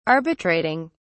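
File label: "arbitrating", British English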